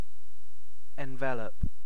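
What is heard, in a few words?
"envelop", British English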